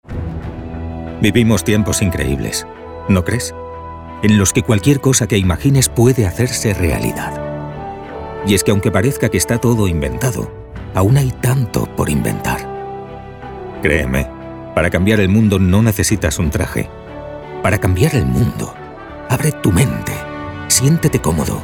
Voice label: COMMERCIAL, Spanish, male

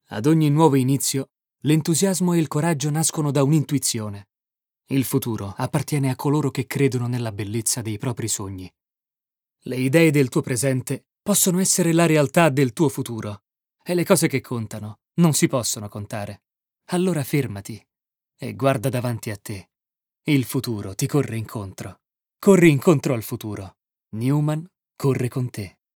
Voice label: Spot, ITALIAN MALE; commercial

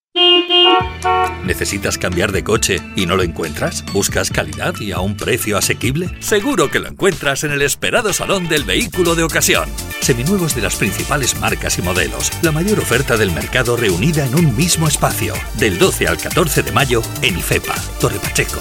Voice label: radio commercial, spanish, male

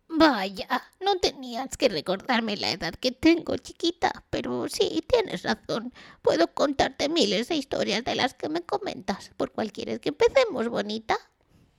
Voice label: character, spanish, female

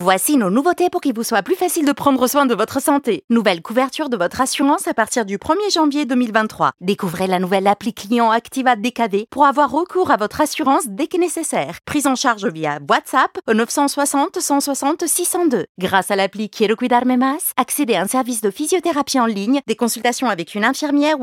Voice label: ADVERTISING, FRENCH FEMALE

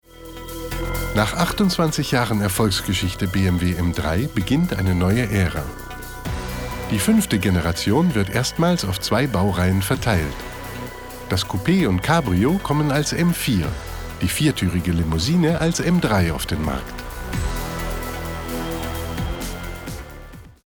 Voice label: corporate, german, male

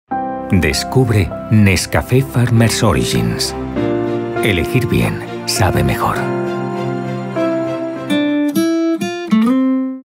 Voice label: commercial, spanish, male

